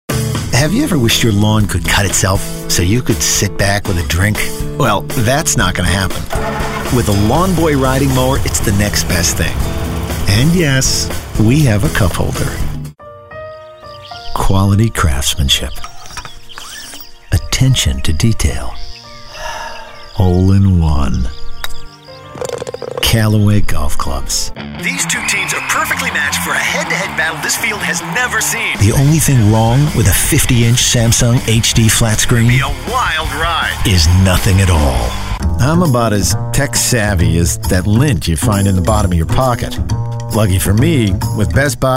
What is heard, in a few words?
Commercial